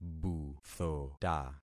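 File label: "buthota", concatenated syllables; Affixing experiment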